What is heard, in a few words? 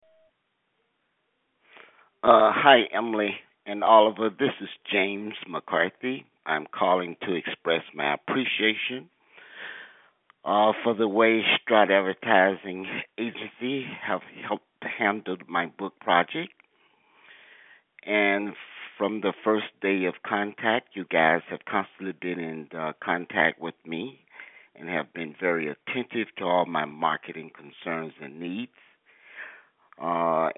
Testimonial Voicemail